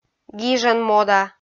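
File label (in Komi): "гижан мода", Komi, female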